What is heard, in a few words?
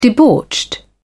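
"debauched", British English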